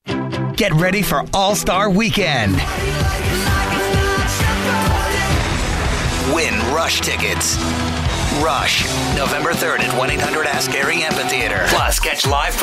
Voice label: Concert Promo

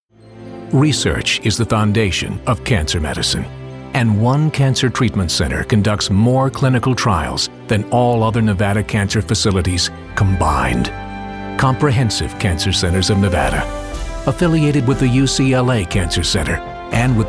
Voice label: Serious

